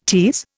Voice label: "tease", Indian